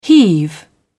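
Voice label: "heave", British English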